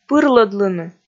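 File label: "пырлӧдлыны", Komi, female; kpv audio dict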